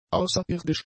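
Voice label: "außerirdisch", German; adjectives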